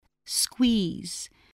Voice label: "squeeze", voice spelling